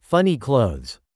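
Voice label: "funny clothes", English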